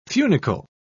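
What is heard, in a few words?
"funicle", British English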